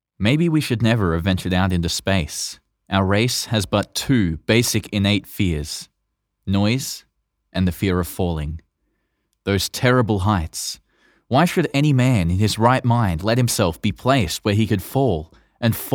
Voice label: Sci-Fi Audiobook